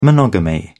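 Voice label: "monogamy", English, British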